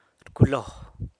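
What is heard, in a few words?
"rculo" takes care of, San Lucas Quiaviní Zapotec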